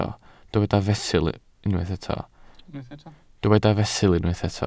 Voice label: "fesul", Welsh

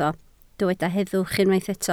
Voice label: "heddwch", Welsh

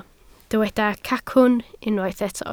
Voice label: "cacwn", Welsh

